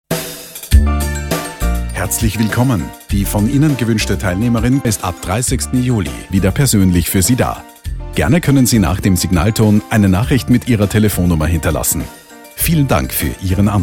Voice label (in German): Abwesend weiblich universal